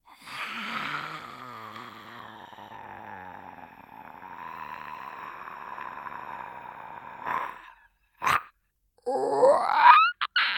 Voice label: Creature

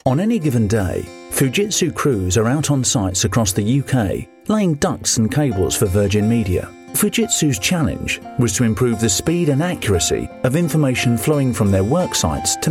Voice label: Corporate

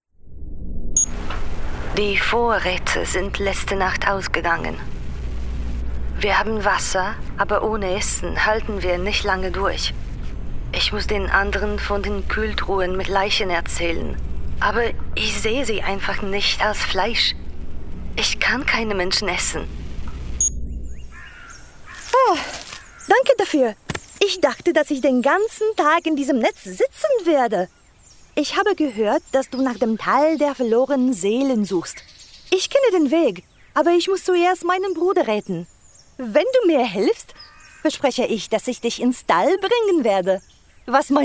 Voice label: Gaming GERMAN; Gaming Reel

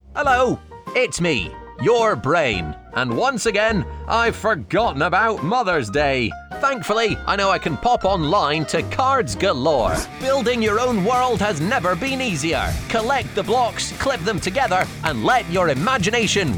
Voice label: Commercial

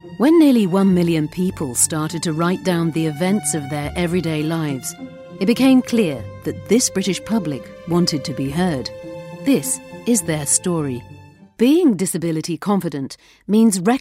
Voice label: Narration

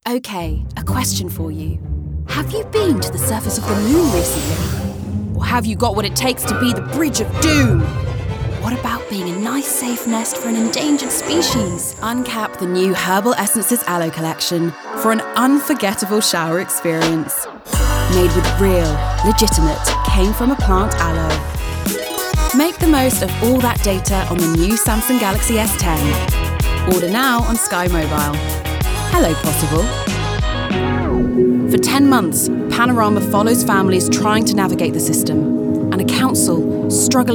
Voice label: Commercial

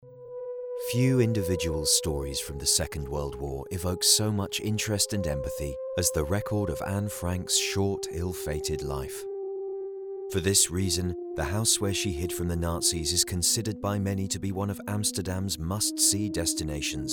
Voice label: Audiobook Drama

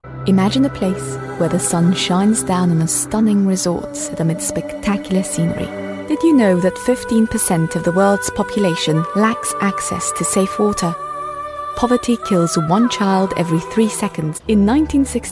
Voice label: ENG

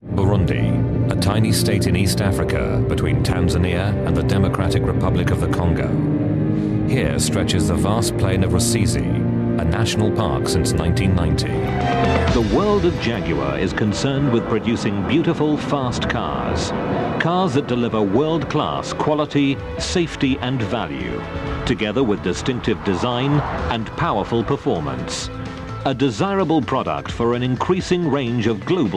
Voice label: Narration

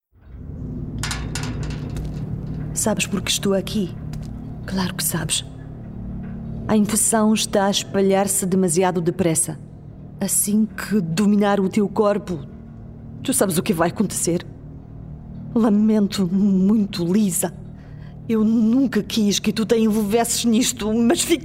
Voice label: Gaming Everywoman PORTUGUESE

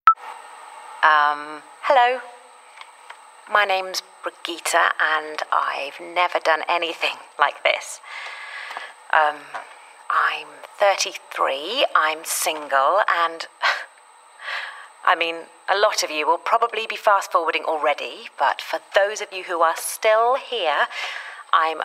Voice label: Radio Drama Reel

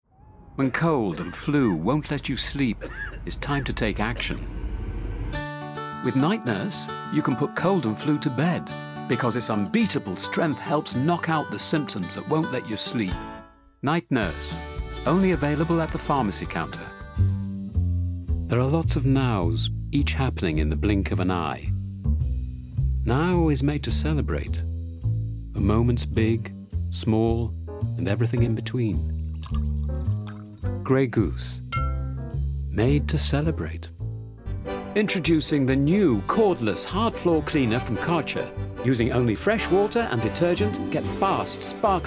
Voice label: Commercials; Montage

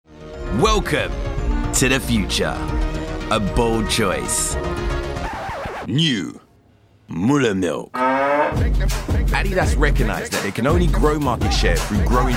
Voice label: Commercial

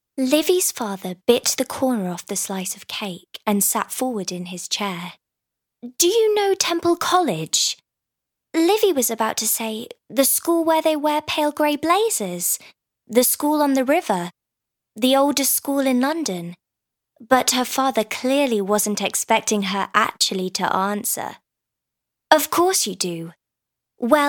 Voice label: audiobook

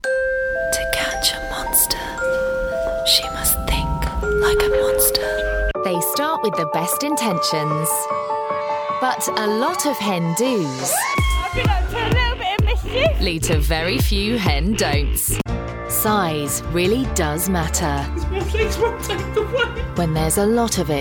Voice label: Promo

